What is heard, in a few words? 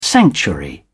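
"sanctuary", British English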